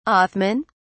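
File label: "othman", British English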